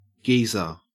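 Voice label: "geezer", Australian English; pronunciations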